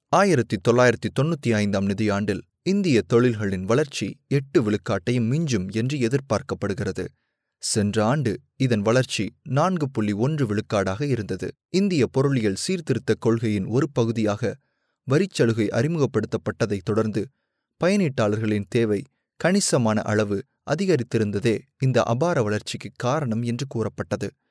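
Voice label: Tamil, Sri Lanka, male; tamil voice over talent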